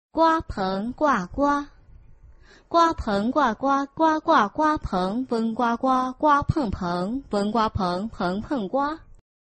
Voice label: chinese tongue twister